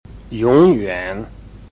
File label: "yong3yuan3", Chinese